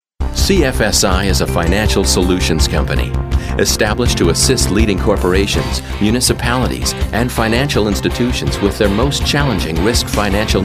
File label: Narration